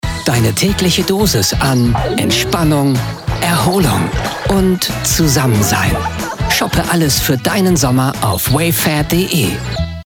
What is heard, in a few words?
ONLINE SPOT